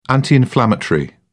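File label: "anti inflammatory", English, British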